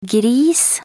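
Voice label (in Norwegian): "gris", Norwegian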